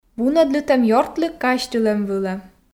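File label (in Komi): "вунӧдлытӧм ёртлы казьтылӧм вылӧ", Komi, female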